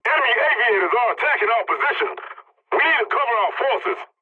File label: pilot point under attack, english; War Thunder